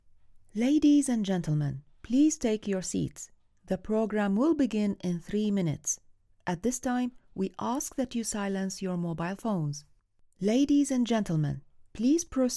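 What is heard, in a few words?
Arabic English, female, announcements; accent of the arab league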